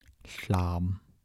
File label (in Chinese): "三" 台城 男